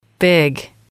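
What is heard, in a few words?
"big", english, female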